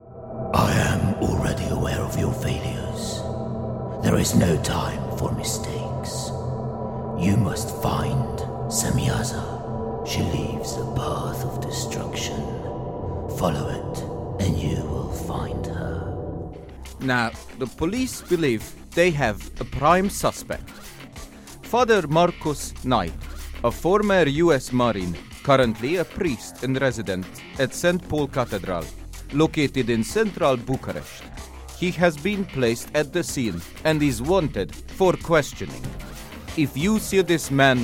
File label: Character